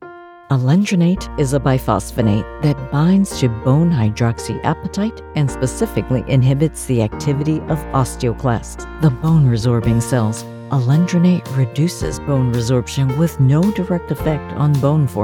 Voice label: With Music; Alendronate